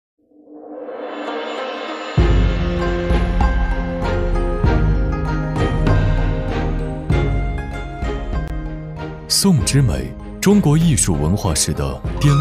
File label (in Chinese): 古典韵味; 电建地产匠艺篇